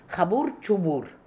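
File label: "Khaboor Chooboor", pronunciations; Fourth Way; Beelzebub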